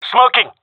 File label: throwing smoke, gendarmerie male; vo; agents